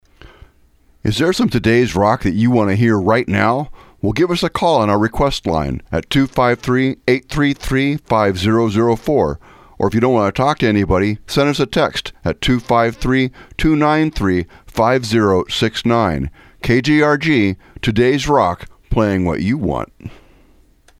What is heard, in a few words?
Bumper Request & Text Line